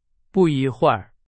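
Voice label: Chinese, vocabulary; hsk2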